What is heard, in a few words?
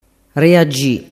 "reagi", dialettu